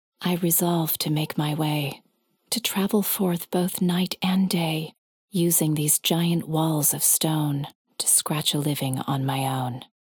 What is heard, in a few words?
Poetry; Resolved